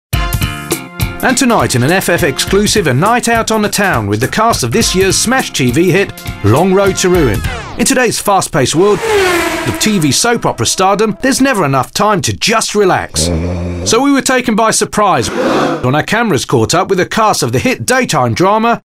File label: kooky